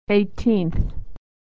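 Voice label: "eighteenth", American English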